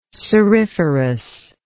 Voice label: "ceriferous", English